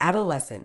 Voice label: "adolescent", Medical English

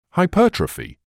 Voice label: "hypertrophy", English

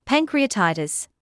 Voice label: "pancreatitis", medical English

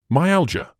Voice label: "myalgia", English

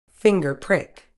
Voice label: "finger prick", medical English

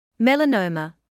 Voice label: "melanoma", medical English

